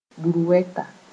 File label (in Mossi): "burwɛta", moore